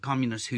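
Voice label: communisT who, Australian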